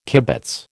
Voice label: "kibitz", American

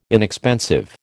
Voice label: "inexpensive", American

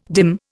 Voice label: "dim", Indian

